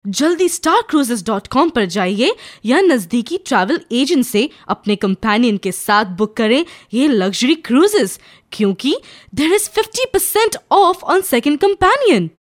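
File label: Hindi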